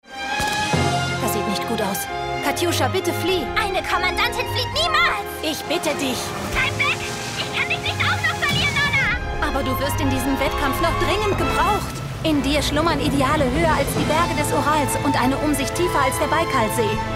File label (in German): ERZAEHLER; Rolle Nonna